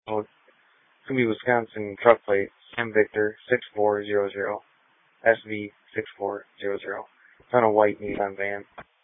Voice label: Sheriff Dispatch